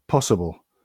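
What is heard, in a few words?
"possible", British English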